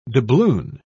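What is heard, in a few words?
"doubloon", English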